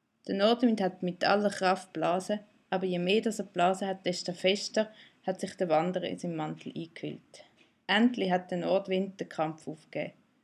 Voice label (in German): Nordwind Dialekt